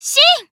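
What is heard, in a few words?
Voice Emotion Call Name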